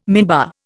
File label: "minbar", Indian